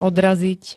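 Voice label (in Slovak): "odraziť", Slovak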